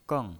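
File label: "geng", Mandarin Chinese, fourth tone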